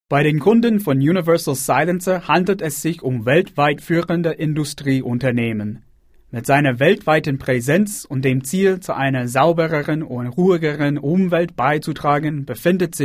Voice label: Deutsch